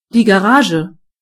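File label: garage, German voices words; gcompris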